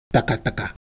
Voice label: Ewe Motion, ideophones